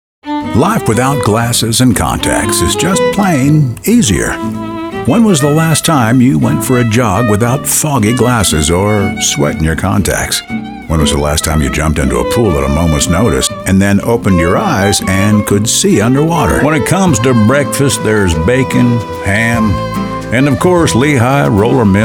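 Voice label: Commercial Folksy Male, Powerful, Deep, Strong; Commercial Voiceover, TV and Radio, Character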